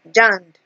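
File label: "jand", Nigerian Pidgin, female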